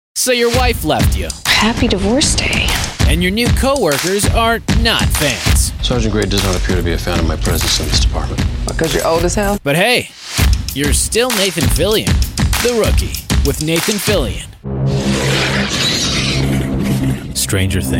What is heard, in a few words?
Promo